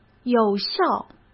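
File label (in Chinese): "有效", Mandarin Chinese; chinese-flashcards; hsk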